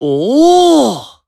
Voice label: Emo Surprise